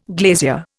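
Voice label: "glazing", Indian; Dictionary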